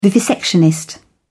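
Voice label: "vivisectionist", British English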